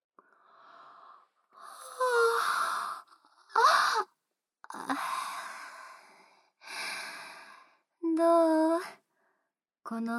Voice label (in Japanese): 添い寝, ASMR